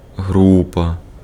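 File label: Ukrainian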